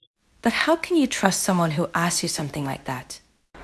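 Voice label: Voice Acting Spanish Female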